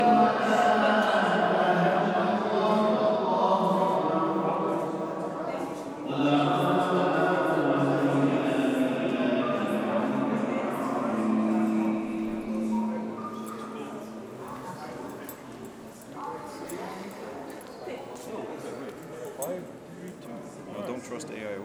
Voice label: Hagia Sofia Indoors Prayer; Istanbul; field-recordings; hagia-sophia